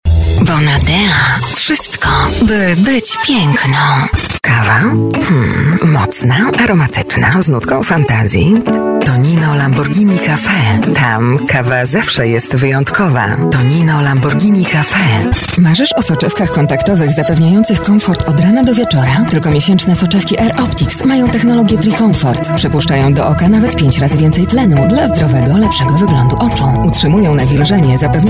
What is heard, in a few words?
COMMERCIALS